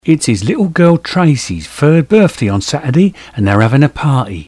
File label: cockney